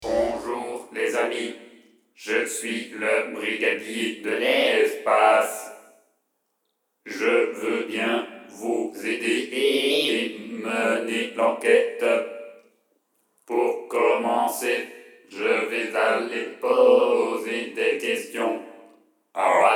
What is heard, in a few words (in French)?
NARRATION